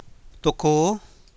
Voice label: "tocóó", Copala Triqui